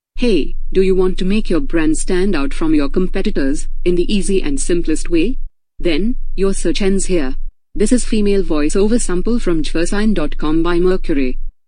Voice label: AI English Female Voiceover Sample; Mercury